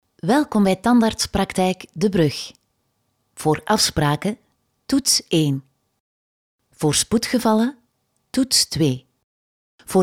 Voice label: flemish ivr; flemish voice actors